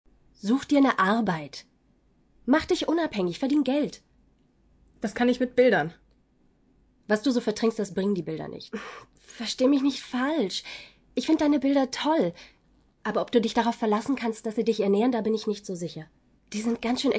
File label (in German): realfilm synchron; such dir ne arbeit